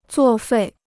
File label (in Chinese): "作废", Chinese